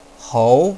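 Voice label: "hou", Mandarin Chinese, second tone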